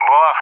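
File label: "Boar", English speech; CallSign; Allied Flight; DCS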